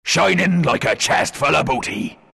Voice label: announcer level up chest, pirate; dlc workshop; capn

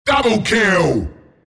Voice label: announcer kill double; killing spree; dota; announcer dlc bristleback

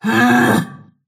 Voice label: anger; snapfire

